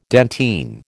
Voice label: "dentine", American